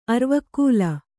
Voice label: "ಅರಿವೆಕಾಲು", Kannada

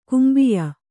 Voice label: "ಕುಂಬಿಯ" Kannada; kumbiya; noun

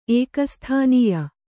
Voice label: "ಏಕಸ್ಥಾನೀಯ", Kannada

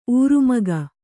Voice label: "ಊರ್ಮಿಕೆ", Kannada

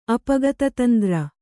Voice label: "ಅಪಗತತಂದ್ರ", Kannada

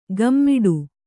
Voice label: "ಘಮ್ಮಿಡು", Kannada

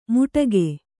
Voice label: "ಮುಟಗೆ", kannada

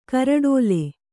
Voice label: "ಕಾರೊಡಲು", Kannada

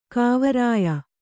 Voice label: "ಕಾವರಾಯ", Kannada

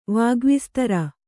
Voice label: "ವಾಗ್ವಿಸ್ತರ", Kannada

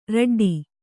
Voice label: "ರೆಡ್ಡಿ", Kannada; raḍḍi; noun

